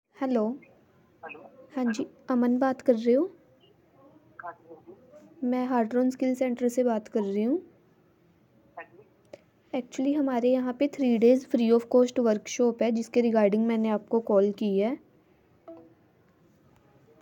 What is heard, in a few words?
call recording